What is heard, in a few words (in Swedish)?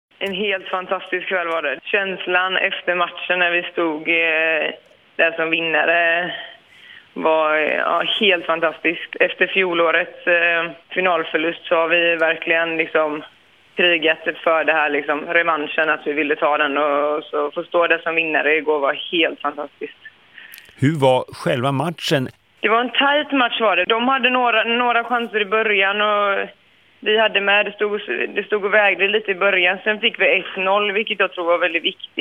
Radio Halland, telefonintervju